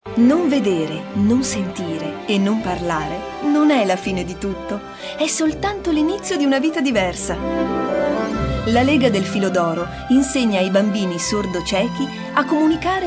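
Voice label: Italian, female, corporate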